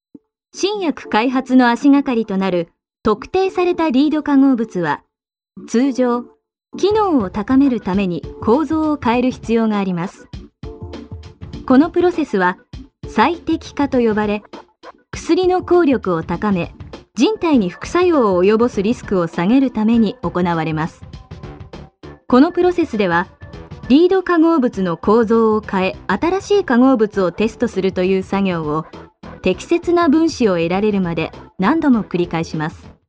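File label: Japanese, female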